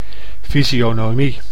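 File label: "fysionomie", Dutch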